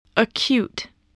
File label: "acute", English voice